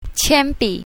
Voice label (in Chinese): "qian1bi3", mandarin